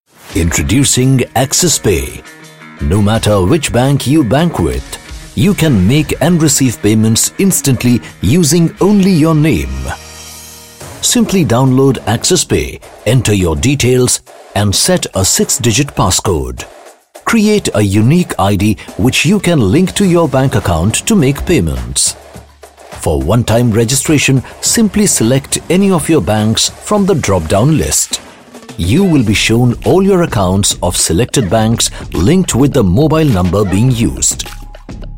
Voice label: English Voice over MUSIC; Axis pay